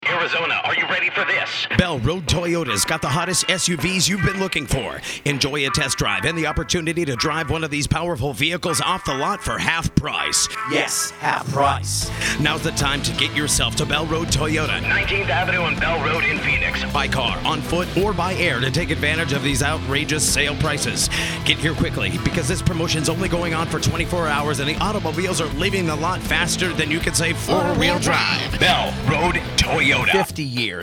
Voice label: radio commercial; Shark Radio Commercial